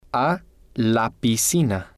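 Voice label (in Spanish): a La Piscina, spanish, male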